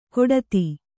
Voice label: "ಗೊಡಧಿ", Kannada

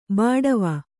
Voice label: "ಬಾಡವ", Kannada

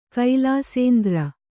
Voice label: "ಕೈಲಾಸೇಂದ್ರ", Kannada

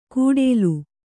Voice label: "ಕೂಡೇಲು", kannada

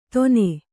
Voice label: "ತೊನೆ", Kannada

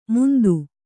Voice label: "ಮುಂದು", Kannada

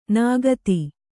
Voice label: "ನಿಖಾತ", Kannada

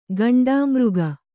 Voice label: "ಘೇಂಡಾಮೃಗ", Kannada

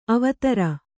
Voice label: "ಅವಧಾರು", Kannada

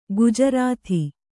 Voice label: "ಗುಜರಾಥಿ", Kannada

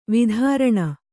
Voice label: "ವೈತರಣಿ", kannada

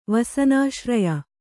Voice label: "ವಸನಾಶ್ರಯ", Kannada

